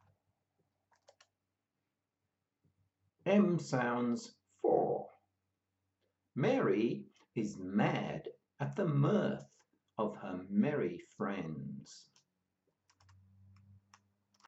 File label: M sounds, pronunciation of australian english